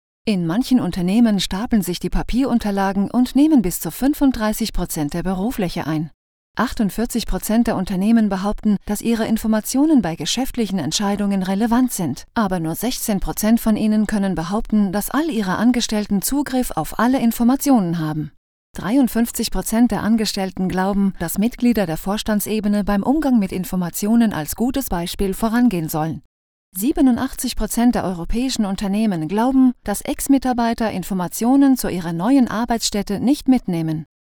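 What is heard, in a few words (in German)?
Werbung, Neutral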